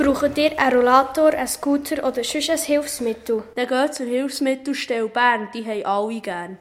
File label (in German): Werbung; Hilfsstelle Bern